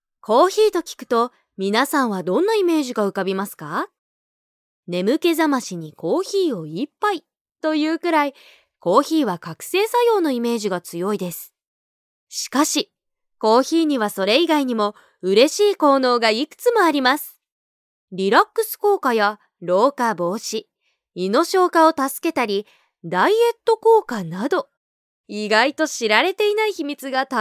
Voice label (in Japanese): 明るめ